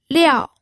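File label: "liao", fourth tone, pinyin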